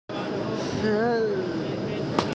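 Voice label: "sheng1yin1", Mandarin Chinese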